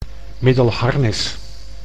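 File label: "Middelharnis", Dutch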